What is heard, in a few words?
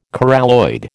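"coralloid", American English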